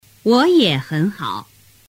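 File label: "woyehenhao", Mandarin Chinese; tu moi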